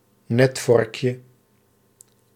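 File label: "netvorkje", Dutch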